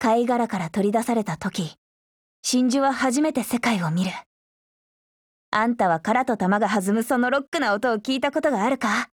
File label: DIALOGUE JP; R5RGP